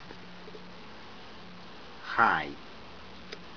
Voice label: "hai", Tobian; penis; Eyamuir hair. He is washing his penis.